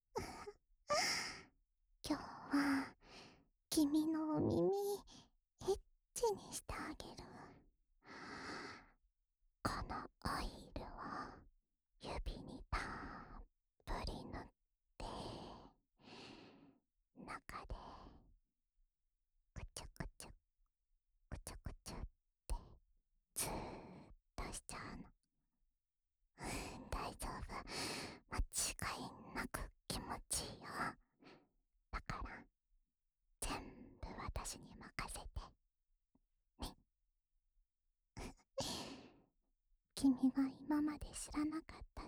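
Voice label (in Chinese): 療癒用耳朵精油按摩（右耳）, ASMR; 莉莉亞; 恋愛サポーター・青春べろちゅー委員会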